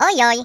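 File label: Oops, voices, Polish; hedgewars; Default pl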